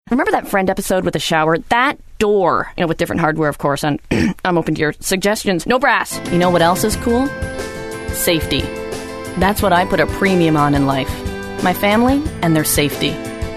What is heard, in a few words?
commercial; TaDa